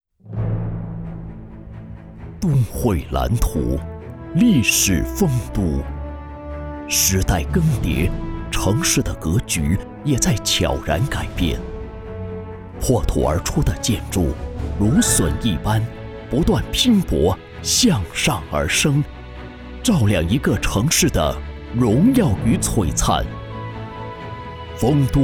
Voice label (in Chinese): 男, 房产专题厚重大气